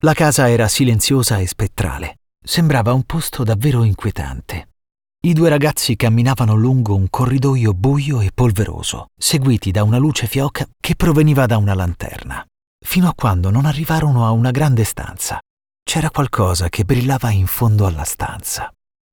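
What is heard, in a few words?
Italian, male, narrative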